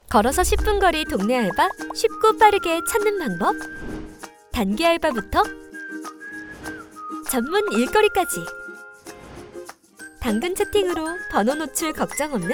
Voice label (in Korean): 일상톤; 당근마켓